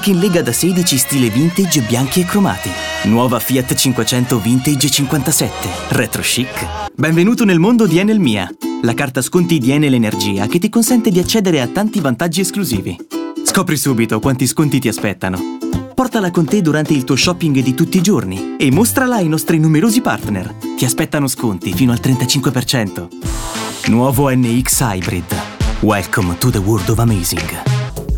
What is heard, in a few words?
ITALIAN VOICES Brilliant Friendly, male voices